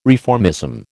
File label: "reformism", American